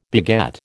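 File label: "beget", American English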